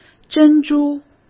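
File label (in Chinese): "珍珠" Mandarin Chinese; hsk; chinese-flashcards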